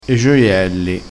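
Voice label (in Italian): "gioielli", vocabolario dialettale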